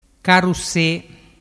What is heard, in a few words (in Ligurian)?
"carruse", dialettu